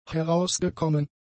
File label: "herausgekommen", German; adjectives